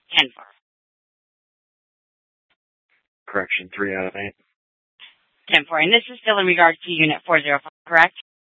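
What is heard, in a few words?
Scottsdale Police Department South Dispatch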